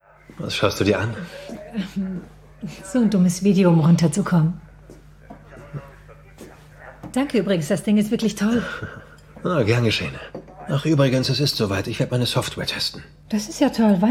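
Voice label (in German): Synchron, Männer; Lieben und Lügen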